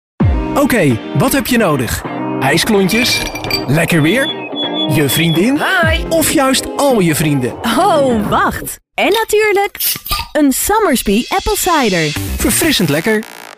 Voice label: dutch male commercials